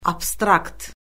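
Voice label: "abstrakt", Azerbaijani; Orfoqrafiya Lüğəti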